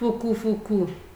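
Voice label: "fukufuku", Nigerian Pidgin, female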